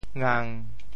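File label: "ngang1", Teochew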